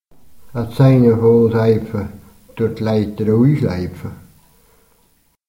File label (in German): haag spruch soapfa, sennwalder dialekte; Ich werfe dir einen Stein ans Bein, damit du nicht mehr nach Hause kannst